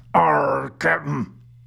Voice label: Arrrggh pirate